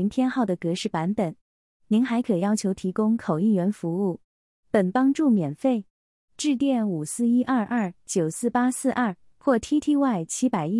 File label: chinese